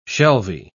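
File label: "shelvy", British English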